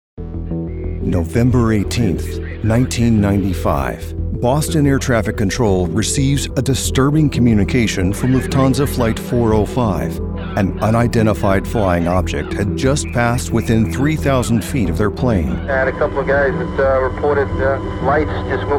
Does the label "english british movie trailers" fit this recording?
No